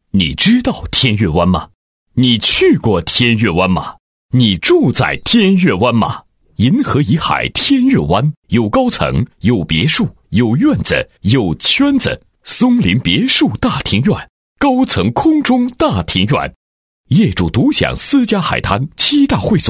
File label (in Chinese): 广告 男 激情; 地产; 天越湾地产男105